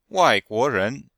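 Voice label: "wai4guo2ren2", Chinese; Cours de Chinois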